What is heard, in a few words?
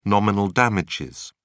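"nominal damages", British English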